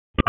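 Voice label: Dispatch 1, P25; WSO DISP 1; wake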